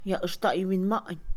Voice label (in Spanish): modo, manera, Mixe, Mujer; INALI